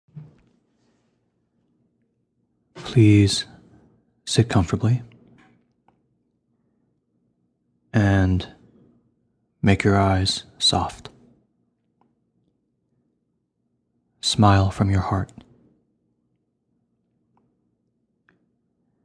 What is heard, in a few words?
Meditation